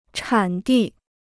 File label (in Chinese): "产地", Chinese